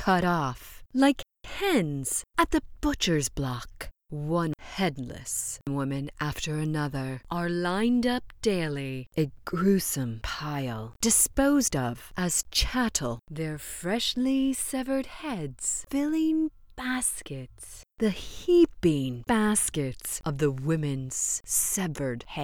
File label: livestock narrative; Livestock combo narrative